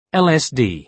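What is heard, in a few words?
"lsd", British English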